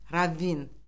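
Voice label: "ravvin", Azerbaijani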